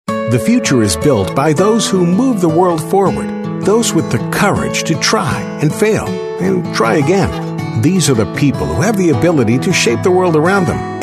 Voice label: Commercial